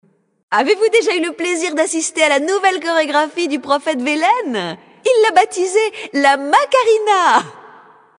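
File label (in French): Female Blague, voix françaises; Draenei Sancteforge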